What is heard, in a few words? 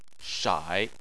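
"shai" tone 3, Chinese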